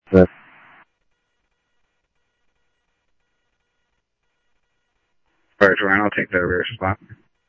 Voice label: Tempe Police, scanner audio; SimulcastF-Tempe